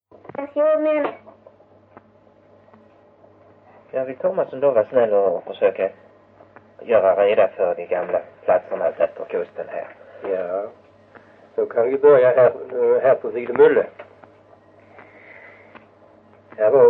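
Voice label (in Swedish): Vitaby Skåne, svenska sagor dialekter; Folkminnen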